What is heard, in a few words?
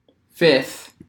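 "fifth", US English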